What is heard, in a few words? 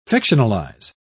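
"fictionalise", English, British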